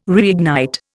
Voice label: "reignite", Indian

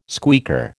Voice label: "squeaker", American

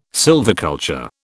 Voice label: "sylviculture", British

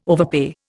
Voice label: "overpay", Indian

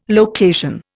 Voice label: "location", Indian

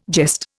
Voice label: "jest", Indian

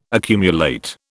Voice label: "accumulate", British

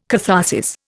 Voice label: "catharsis", Indian

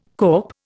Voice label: "kop", Indian

